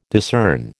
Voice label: "discern", American